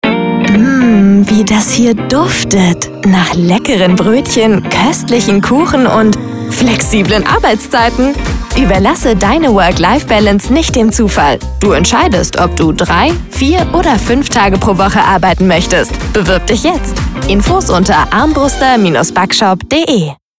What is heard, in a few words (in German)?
Recruiting Verkaeufer Musik, sprecher stimme recruiting video; 20s